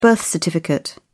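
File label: "birth certificate", British English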